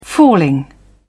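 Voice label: "falling", English, British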